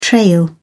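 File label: "trail", British English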